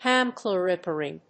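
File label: "Hammerklavier", English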